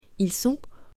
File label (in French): "ils sont", female